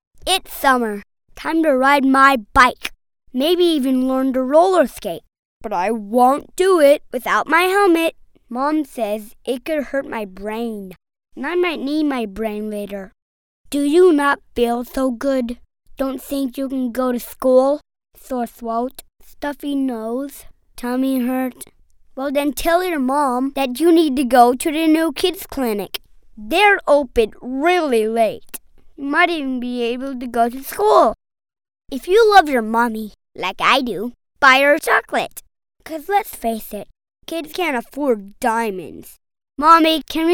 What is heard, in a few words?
boy; kid